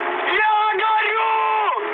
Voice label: on fire, mood high, speech ru; War Thunder

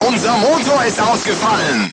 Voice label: voice message engine destroyed, crew tanks german

